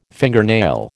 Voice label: "fingernail", American